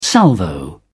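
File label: "salvo", British English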